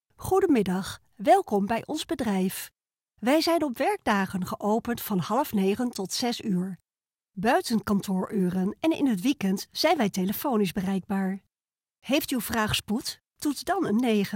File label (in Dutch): Voice tekst Nederlands